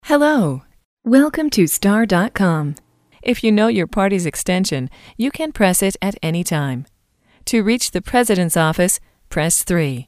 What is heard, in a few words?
Phone